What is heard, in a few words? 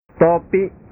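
"topi", Indonesian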